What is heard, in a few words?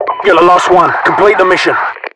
inform last alive, UK; Voiceovers